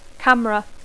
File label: "camera", English